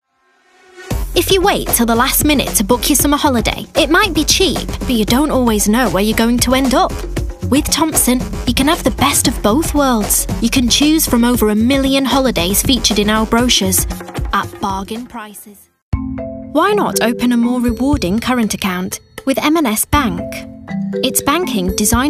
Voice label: Commercial